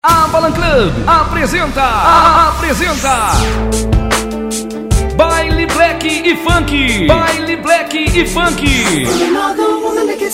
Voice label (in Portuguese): vinheta